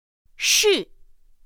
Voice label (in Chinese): "shì", pinyin; pinyin2; pinyinji